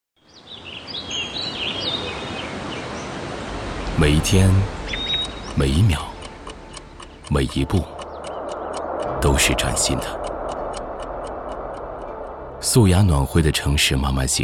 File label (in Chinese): 男 走心